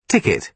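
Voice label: "ticket", British English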